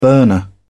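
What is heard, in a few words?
"burner", British English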